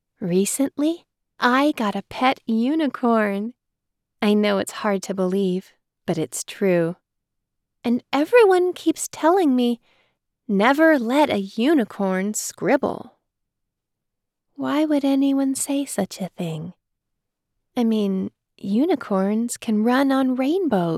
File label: Childrens 1st POV; Unicorn Scribble